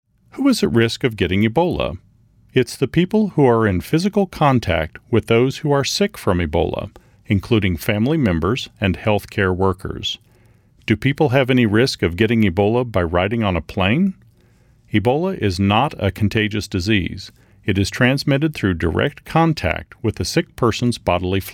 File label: english Audio Messages For The Airport; ebola; radio-spots; vhf